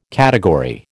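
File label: "category", American English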